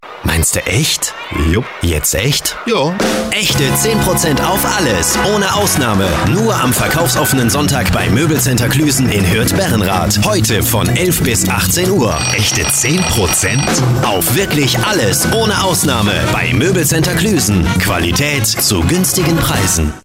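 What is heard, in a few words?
male German commercial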